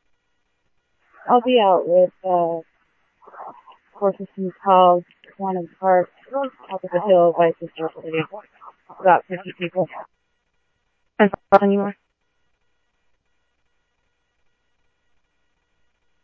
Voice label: Tempe Police, scanner; SimulcastF-Tempe; TEMPE PD A04

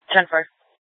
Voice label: Scottsdale PD Sth Dispatch, scanner

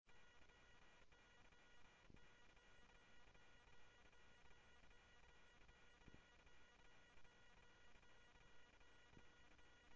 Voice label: Police, scanner audio

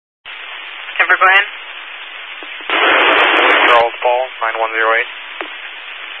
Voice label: Highway Patrol, scanner; DPS

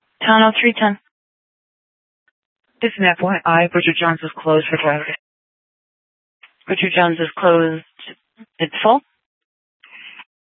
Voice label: Sheriff, scanner P25

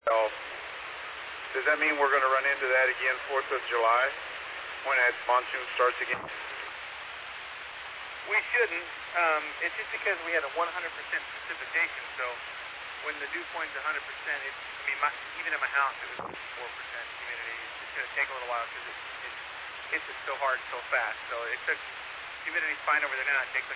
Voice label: Medevac, scanner; NativeAirAmb

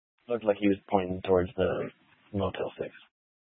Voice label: Mesa PD Dispatch

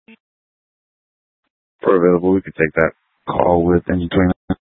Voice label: Fire Dispatch; K8 - FDCOM 8 - South; C- Chandler

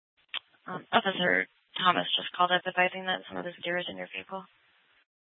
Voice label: Mesa PD Central Dispatch, Scanner

